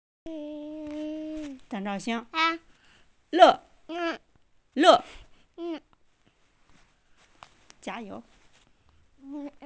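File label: "le4", Mandarin Chinese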